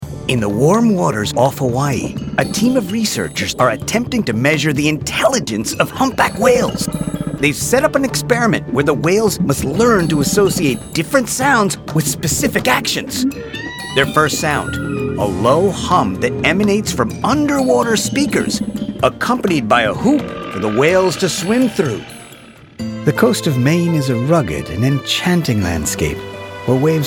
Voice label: Narration

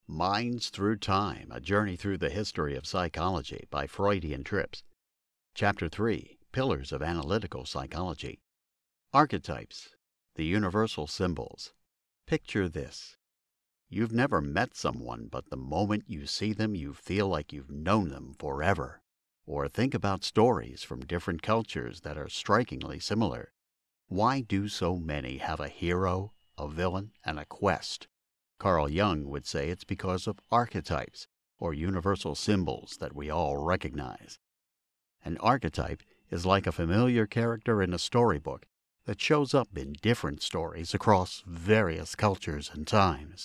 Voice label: audiobook

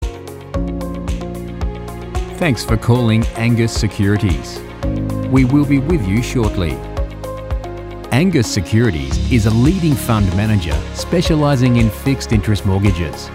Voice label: On Hold; Angas Securities

